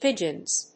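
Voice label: "pigeons", English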